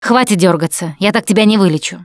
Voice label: Heal Other, russian; Producer

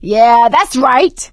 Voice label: taunt, survivor voice; Left 4 Dead 2; producer

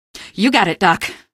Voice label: squad affirm, female; npc; Garry's Mod; hl2

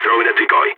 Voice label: decoy, balkan; Counter-Strike Global Offensive

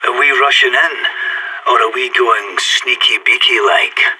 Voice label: radio lock n load, player vo sas

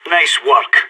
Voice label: radio bot end solid, player vo sas

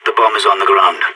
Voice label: spotted loose bomb, player vo sas

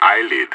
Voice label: radio follow me, player vo; idf

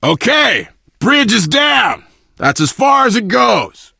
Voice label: initial meeting, survivor voice biker

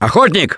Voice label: Warn Hunter, russian; Gambler

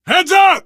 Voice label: lookout, survivor voice biker; Left 4 Dead 2

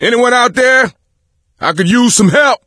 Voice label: call for rescue, voice; Left 4 Dead 2; coach

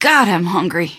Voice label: question, female; Garry's Mod; hl2

